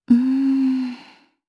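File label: Vox Think, Japanese; voices; heroes; kingsraid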